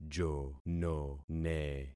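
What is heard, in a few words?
"jonone", concatenated syllables; Affixing experiment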